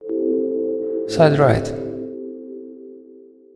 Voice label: audio channel side right